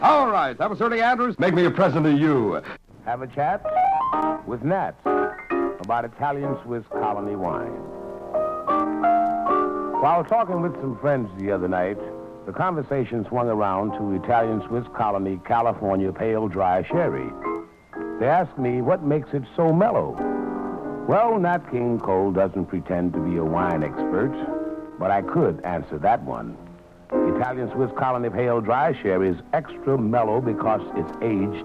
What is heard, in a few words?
commercials; 1952; WJW; Moondog